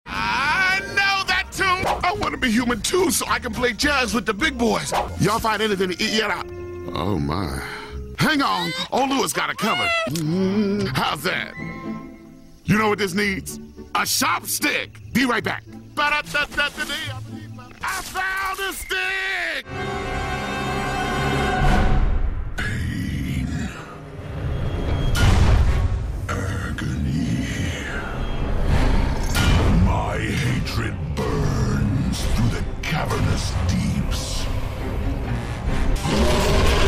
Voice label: animation